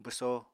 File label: "bwso", Apatani